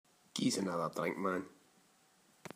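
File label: "Anither", slang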